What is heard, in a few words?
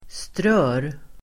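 "strör", Swedish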